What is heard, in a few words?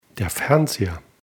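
"fernseher", German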